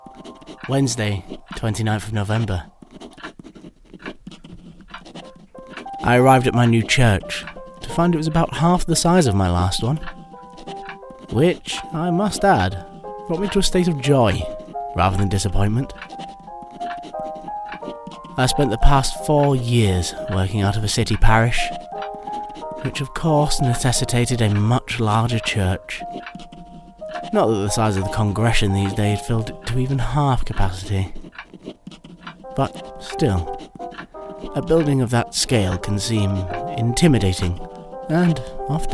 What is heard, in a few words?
Narration